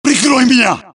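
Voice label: suppress, dialogue, Allies; mohaa; Mission 3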